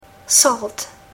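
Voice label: "salt", English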